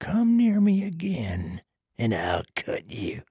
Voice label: trader male dislike greeting